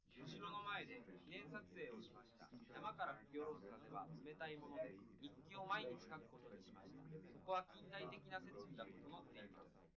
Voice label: male liverec 5cm sim; SASSEC07